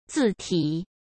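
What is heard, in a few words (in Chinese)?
"字体", Mandarin Chinese; hsk; chinese flashcards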